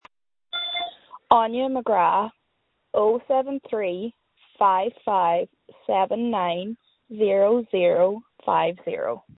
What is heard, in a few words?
call recording